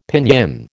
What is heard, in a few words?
"Pinyin", American